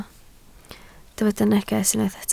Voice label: "neges" Welsh

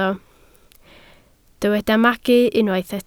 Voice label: "magu", Welsh

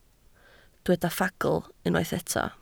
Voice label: "ffagl", Welsh

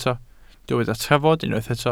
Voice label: "trafod" Welsh

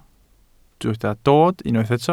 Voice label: "dod", Welsh

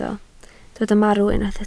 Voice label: "marw", Welsh

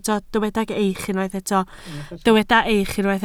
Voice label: "eich" Welsh

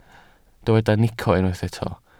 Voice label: "nico", Welsh

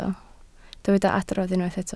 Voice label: "adrodd", Welsh

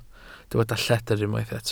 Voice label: "lledr", Welsh

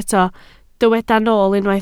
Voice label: "nool" Welsh

